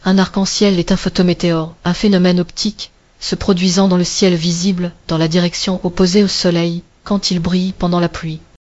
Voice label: speaker, fr FR; piper-voices

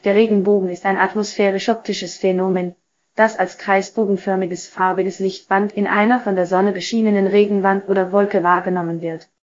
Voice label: speaker, de_DE; piper-voices

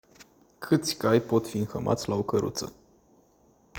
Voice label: romanian prompt; aya expanse

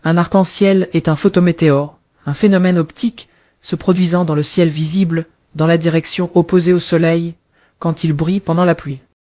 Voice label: speaker, fr FR; piper-voices

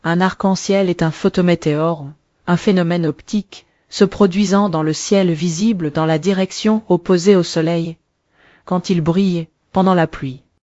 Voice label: speaker, fr FR; piper-voices

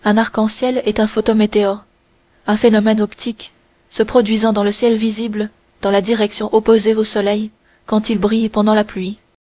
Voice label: speaker, fr FR; piper-voices

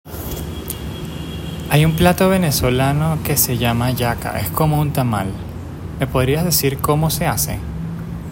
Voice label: spanish prompt; aya expanse

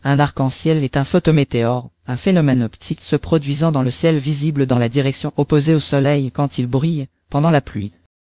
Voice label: speaker, fr FR; piper-voices

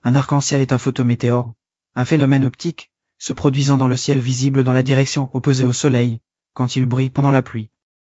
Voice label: speaker, fr FR; piper-voices

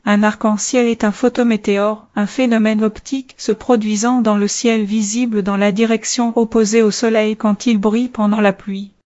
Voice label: speaker, fr FR; piper-voices

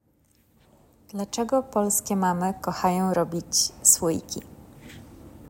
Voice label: polish prompt

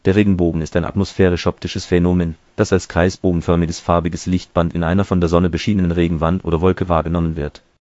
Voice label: speaker, de_DE; piper-voices

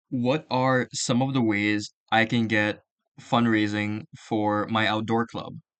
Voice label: english prompt; aya expanse

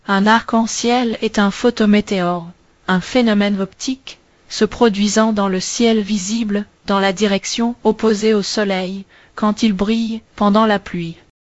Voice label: speaker, fr FR; piper-voices

